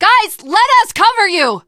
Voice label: teengirl, voice; Left 4 Dead 2; tank